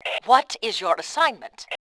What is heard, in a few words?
bot, Fem; voice; Tribes2